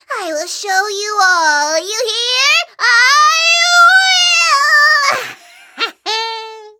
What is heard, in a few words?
level up, en